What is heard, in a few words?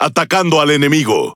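Spanish Speech; Pilots Engage; World Of Warships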